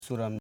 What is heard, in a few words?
"su ram", Koro